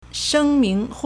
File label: "sheng1ming2", Chinese